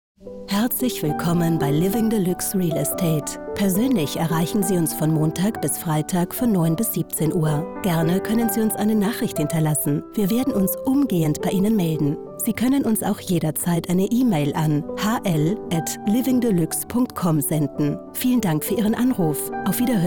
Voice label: answering machines scripts